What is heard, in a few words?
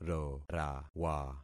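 "rorawa", concatenated syllables; Affixing experiment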